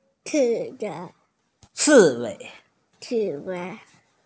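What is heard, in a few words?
"ci4wei5", Mandarin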